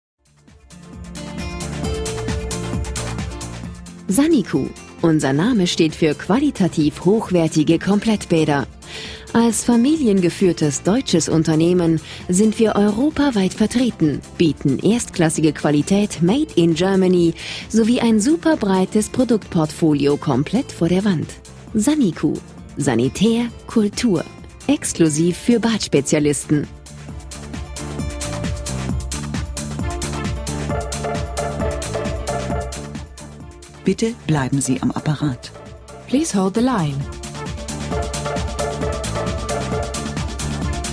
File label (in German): Werbespot; Saniku